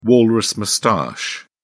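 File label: "walrus moustache", English, British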